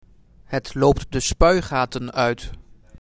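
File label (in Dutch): "Het loopt de spuigaten uit", Dutch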